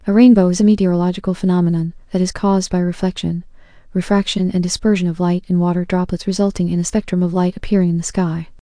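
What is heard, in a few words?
speaker, American English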